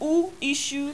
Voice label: "U isu", Yoruba; ALPHABET RHYME IN BITS